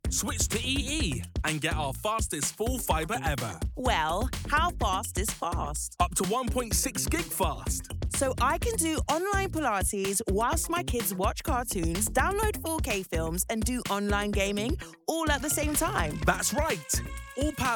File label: Commercial